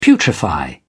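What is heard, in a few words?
"putrefy", British English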